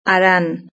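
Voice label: "harán", Spanish; verb-conjugator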